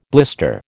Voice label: "blister", American

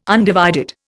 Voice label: "undivided", Indian

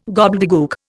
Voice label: "gobbledegook", Indian

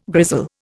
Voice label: "grizzle", Indian

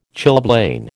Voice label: "chilblain", American

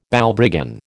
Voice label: "Balbriggan", American

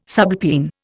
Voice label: "subalpine", Indian

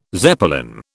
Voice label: "Zeppelin", British

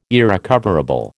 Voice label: "irrecoverable", American

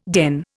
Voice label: "den", Indian

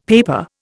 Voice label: "paper", Indian; Dictionary

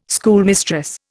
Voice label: "schoolmistress", Indian

